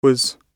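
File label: "was", British English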